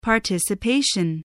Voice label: "participation", English, voice